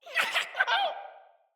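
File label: Idle Corrupted Sprouts, en; Dialog; Battle Barks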